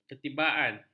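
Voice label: "ketibaan", Malay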